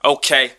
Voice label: "okay", expletives